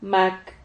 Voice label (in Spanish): "mac", Silabas